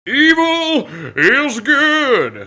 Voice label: Preacher - Evil Is Good; blackjack; Intro Voices